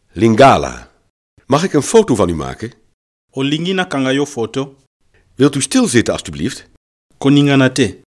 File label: lingala; Mag ik een foto van u maken?